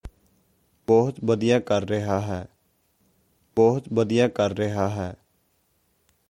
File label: is doing very well punjabi